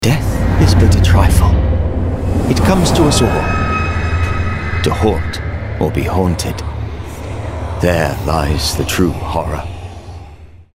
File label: RP, british rp natural